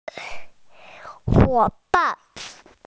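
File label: "partner", Mandarin, hearing-impaired; huo3ban4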